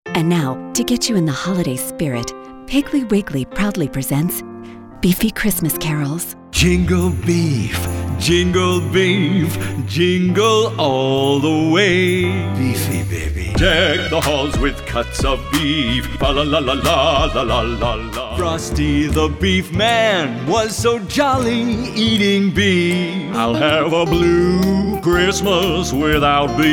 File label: Polished Voice, radio; Beefy Christmas Carols